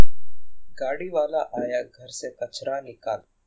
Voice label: calm, hin; GAN for speech spectrogram

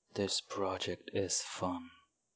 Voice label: calm eng; GAN for speech spectrogram

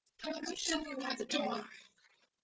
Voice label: calm fearful generated

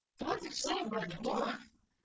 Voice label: calm surprised generated, speech; GAN for speech spectrogram

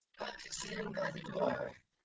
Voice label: calm happy generated; GAN for speech spectrogram

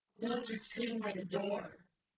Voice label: calm disgust generated, speech; GAN for speech spectrogram

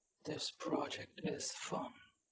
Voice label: calm eng Anger generated; GAN for speech spectrogram

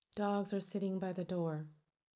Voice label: neutral; GAN for speech spectrogram

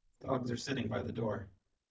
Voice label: calm sad generated, speech; GAN for speech spectrogram

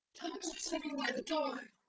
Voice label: calm fearful generated; GAN for speech spectrogram

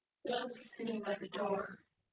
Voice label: calm sad generated, speech; GAN for speech spectrogram

